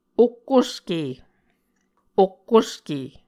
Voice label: "okkoske", Muscogee; Mvskoke Words with Repeated Consonants; Onvkv Ohhvyayices; A Dictionary of Creek/Muskogee; SIL International